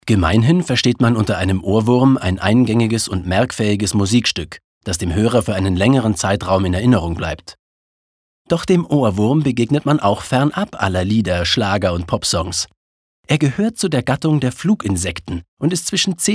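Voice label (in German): commentary, Freundlich; Ohrwurm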